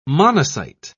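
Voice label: "monocyte", English, British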